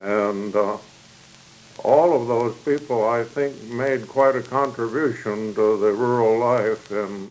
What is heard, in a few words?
Oral history with 73 year old male Meeker County Minnesota, american english dialect